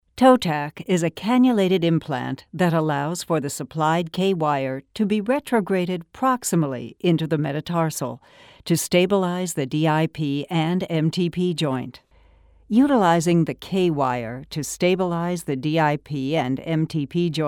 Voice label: animation